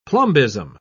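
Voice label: "plumbism", British English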